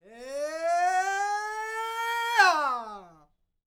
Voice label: MEXICO VOICE, MEXICAN; ILIO; Vocal Planet VOL-5

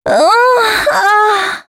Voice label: female c#133, adlips

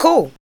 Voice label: ADLIBS 90; Ueberschall; Jam Box

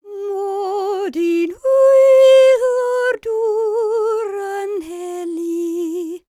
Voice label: WELSH F, World Voices

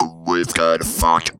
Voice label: GOT THE FUNK, Funky Vocals; USB Soundscan vol.18; AKAI

